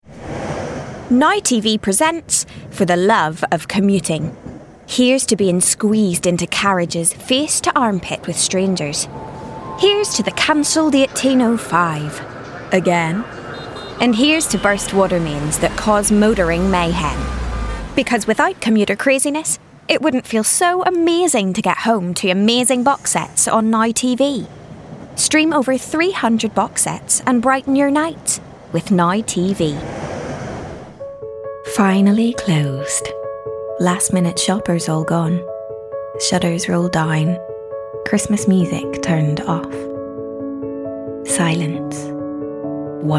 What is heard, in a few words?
Northern Irish